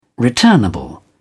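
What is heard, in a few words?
"returnable", British English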